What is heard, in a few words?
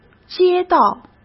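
"街道", Mandarin Chinese; chinese flashcards; hsk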